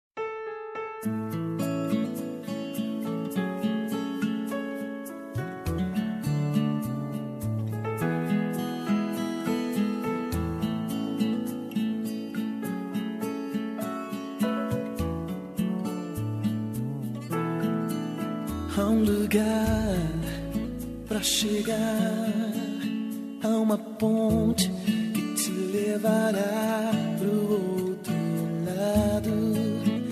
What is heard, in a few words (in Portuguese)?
amigo gospel, Aniversário de Amigo Gospel – Voz Feminina; Telemensagens para todas as ocasiões